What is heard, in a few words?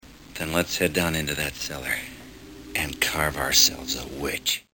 witch